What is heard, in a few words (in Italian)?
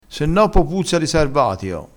"sarvatio", crespinese